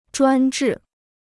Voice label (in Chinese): "专制", Chinese; 专制 (zhuān zhì); autocracy; dictatorship